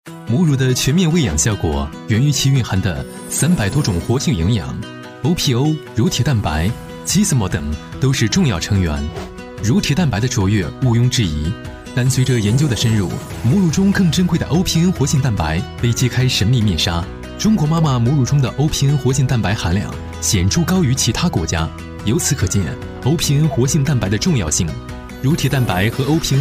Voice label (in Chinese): 男, 产品专题, 科技时尚; 时尚质感; 年轻稳重